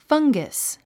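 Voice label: "fungus", English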